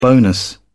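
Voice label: "bonus", British English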